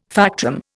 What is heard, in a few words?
"factum", Indian